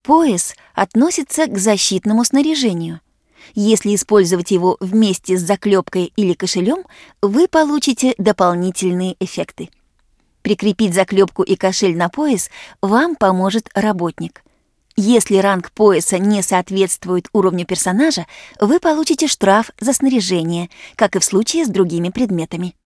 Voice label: tutorial voice, ru; lineage2

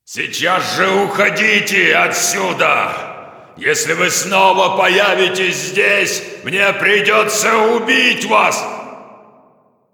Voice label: cinematic voice, ru; lineage2

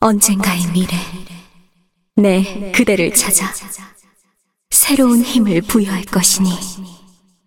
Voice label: cinematic voice; lineage2

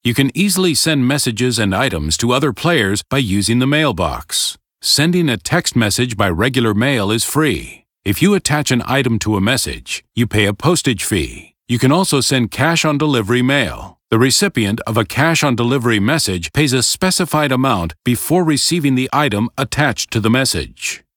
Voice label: tutorial voice; lineage2

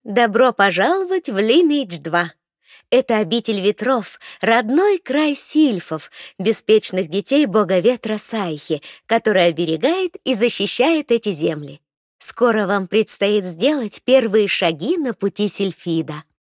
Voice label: tutorial voice, ru; lineage2

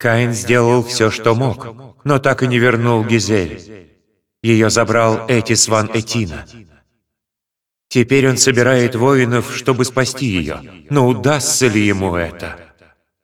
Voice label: cinematic voice, ru; lineage2

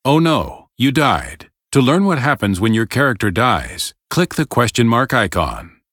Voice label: tutorial voice; lineage2

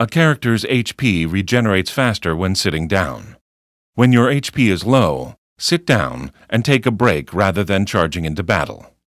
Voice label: tutorial voice; lineage2

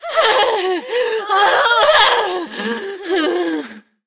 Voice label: cinematic voice, ru; lineage2

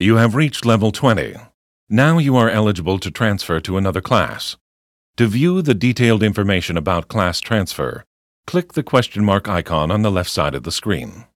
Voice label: tutorial voice; lineage2

